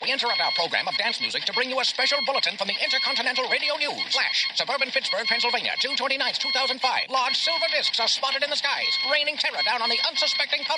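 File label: News Reel announcer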